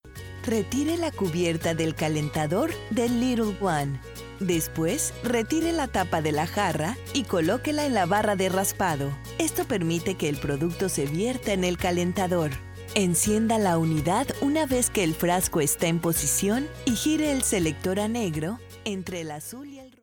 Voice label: Spanish Latin

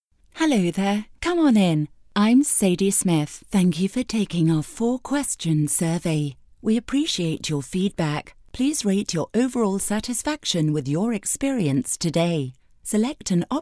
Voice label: English United Kingdom

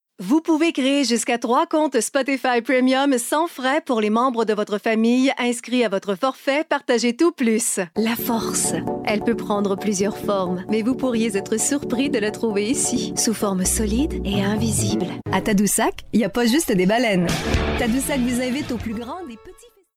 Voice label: French Canada

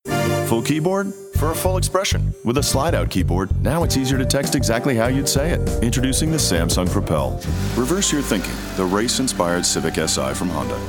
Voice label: English USA

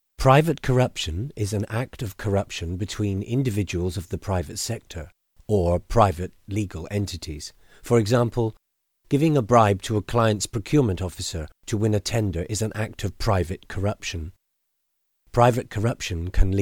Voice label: English United Kingdom

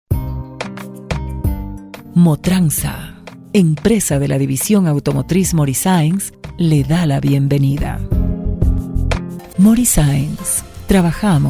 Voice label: Spanish Neutral

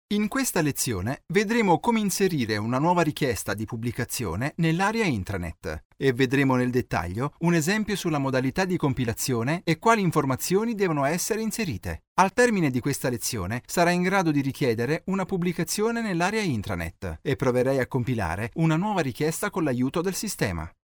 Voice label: Italian Native

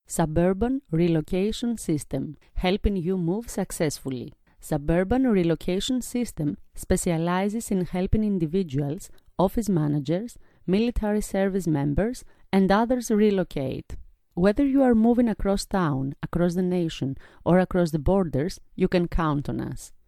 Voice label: English Foreign English Accent

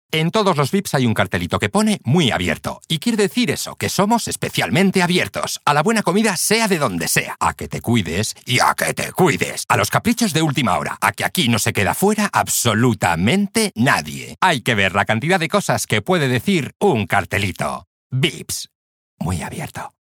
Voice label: Spanish Spain

